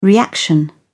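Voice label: "reaction", British English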